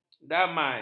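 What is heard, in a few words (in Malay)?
"damai", Malay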